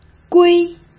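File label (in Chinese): "龟", Mandarin Chinese; hsk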